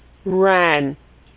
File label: "ran", pronunciation